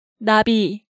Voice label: "Nabi", Korean